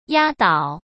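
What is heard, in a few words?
"压倒", Mandarin Chinese; hsk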